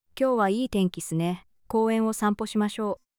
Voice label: lang japanese; supertonic